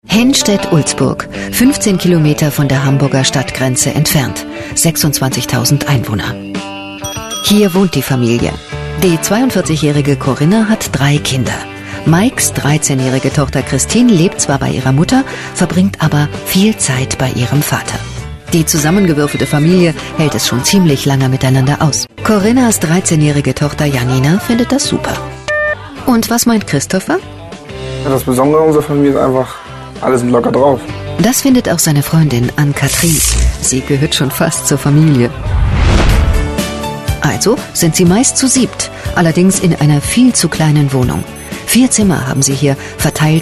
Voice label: germany voice over female commercial